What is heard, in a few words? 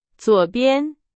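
Chinese, example sentence; hsk2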